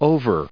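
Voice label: "over", US English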